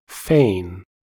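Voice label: "fane", American English; pronunciations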